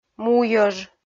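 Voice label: "муйӧж" Komi, female; kpv audio dict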